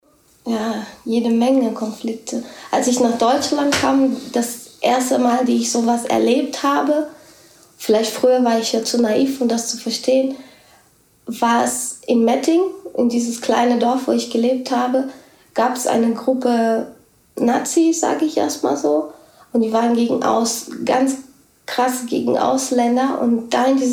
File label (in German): portogiesisch brasilien